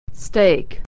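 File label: "steak", American English; homophones; stake - steak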